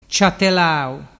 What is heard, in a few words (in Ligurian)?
"ciatelau", dialettu